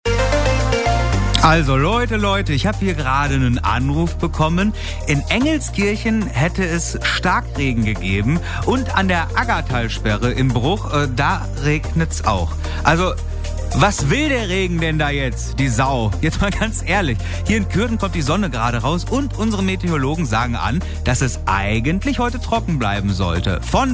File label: Aircheck